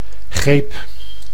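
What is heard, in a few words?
"geep", Dutch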